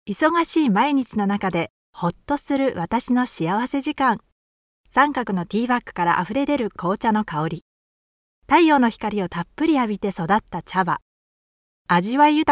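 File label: Japanese female Commercial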